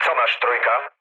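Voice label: Polish chat; War Thunder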